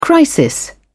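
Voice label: "crisis", British English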